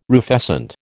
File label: "rufescent", American